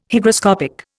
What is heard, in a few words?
"Hygroscopic", Indian